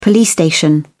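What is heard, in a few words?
"police-station", British English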